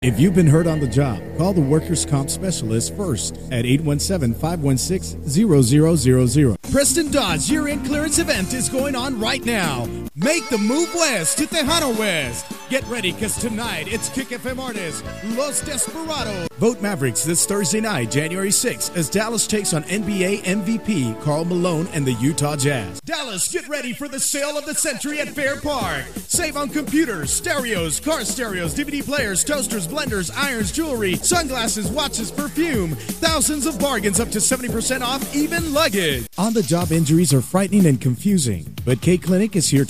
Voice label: Eng, english males